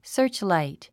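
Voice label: "searchlight", English word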